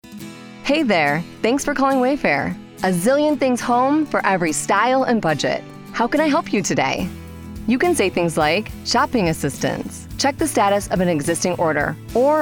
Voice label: Telephony; Wayfair

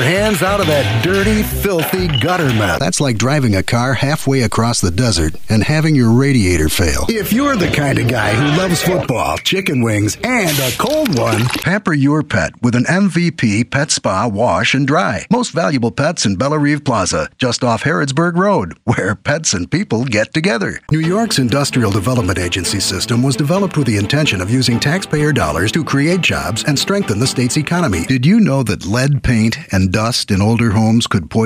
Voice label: HOLIDAY MESSAGE; VOICE REALM

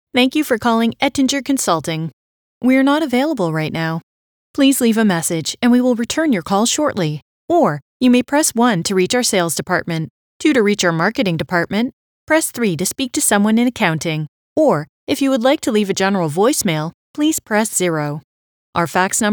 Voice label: IVR Professional

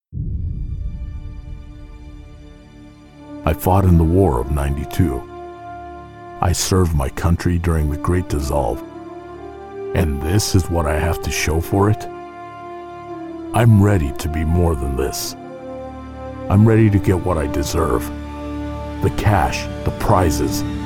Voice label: Video Game Trailer

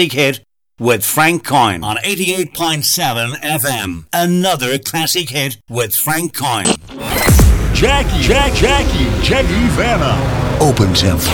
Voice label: Radio Jingles

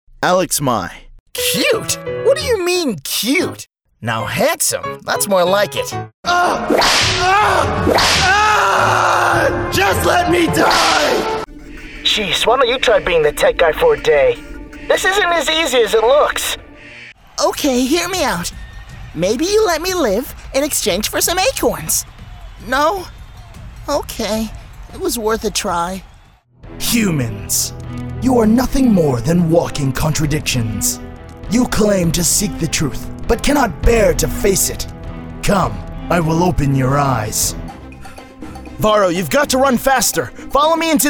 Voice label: Character

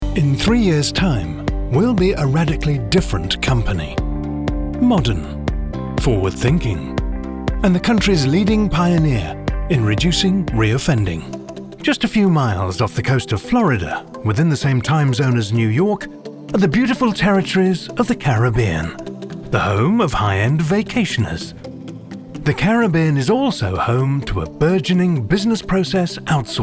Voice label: Corporate Reel; EnigmaVoices

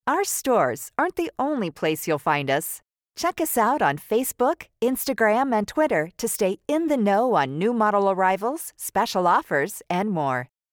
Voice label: Telephony RAW

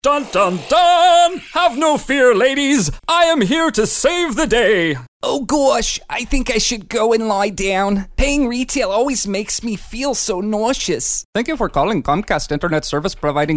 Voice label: Character

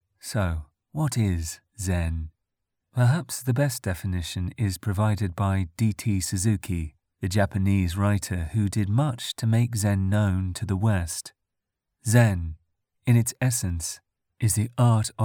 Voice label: Zen Voice Only

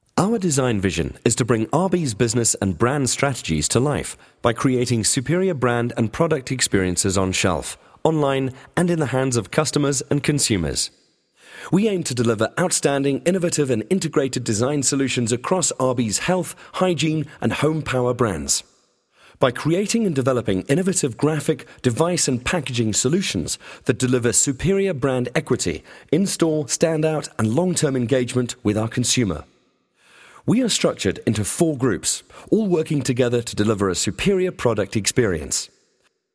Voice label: corporate up beat

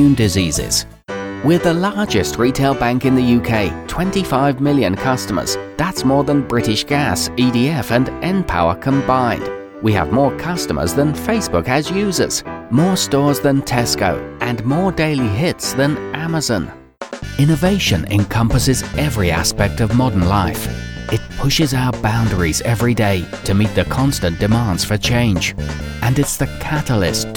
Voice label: Explainer Videos Voice-over Stereo

